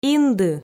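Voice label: "инды", Komi, female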